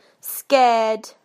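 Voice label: "scared", British English; PictureDictionary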